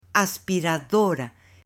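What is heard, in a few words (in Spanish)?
"aspiradora" Spanish, female; UTENSILIOS; AppLenguaje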